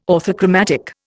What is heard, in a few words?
"orthochromatic", Indian